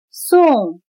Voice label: "送", chino

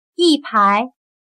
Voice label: "一排", chino

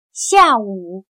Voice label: "下午", chino

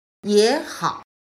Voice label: "也好", chino

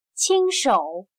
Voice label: "亲手", chino

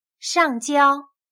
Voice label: "上交", chino

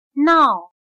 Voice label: "闹", chino

